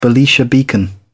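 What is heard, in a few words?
"belisha beacon", British English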